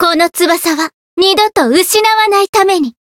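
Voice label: Voice chant, Japanese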